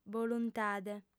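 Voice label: "boluntade", female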